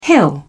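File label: "hill", British English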